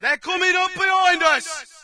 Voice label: brit behind us; player; Source Engine; dod